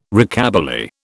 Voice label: "Rockabilly", British